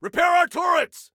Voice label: Repair Turrets, Soldier Male